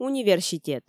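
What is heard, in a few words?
"университет" Komi, female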